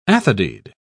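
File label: "athodyd", English, uk British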